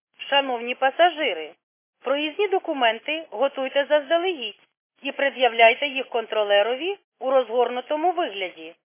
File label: khs st female, subway station